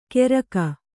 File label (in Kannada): "ಕೆರಗ", Kannada